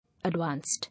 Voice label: "Advanced", English